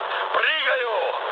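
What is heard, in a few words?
Russian bailout mood med, speech; War Thunder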